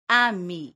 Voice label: "ami", esperanto

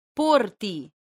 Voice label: "porti", esperanto

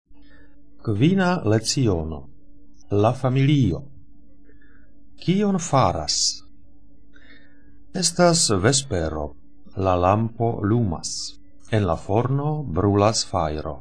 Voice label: text, esperanto

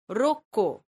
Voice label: "roko", esperanto; leciono1

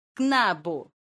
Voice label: "knabo", esperanto

